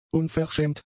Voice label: "unverschämt", German; adjectives